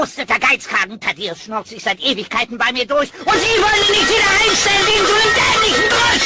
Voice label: angry german